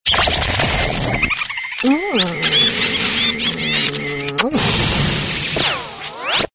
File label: comedy give us a kiss